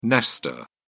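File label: "nester", British English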